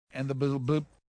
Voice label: stammer